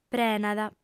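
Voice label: "prenat", female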